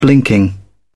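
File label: "blinking", British English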